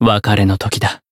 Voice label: voice finish, jp; dunarith

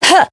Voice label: Voice damaged; hoozuki

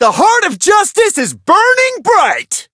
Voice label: variable chant

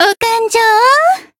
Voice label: finish, jp; hoozuki

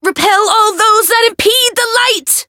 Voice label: Voice variable chant; felmina

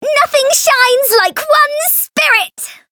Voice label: chant; ilulu

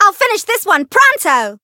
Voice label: chant

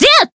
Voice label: attack, jp

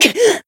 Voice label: damaged, jp

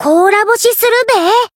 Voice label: heal, jp

